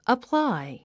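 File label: "apply", English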